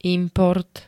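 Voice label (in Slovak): "import", Slovenské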